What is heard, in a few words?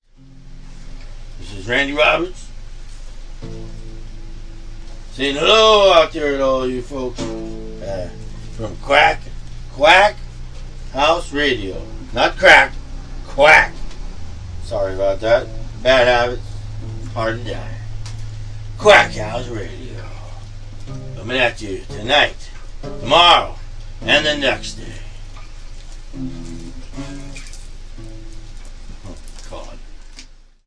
Promo; STATION ID